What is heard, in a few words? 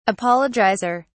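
"apologizer", British English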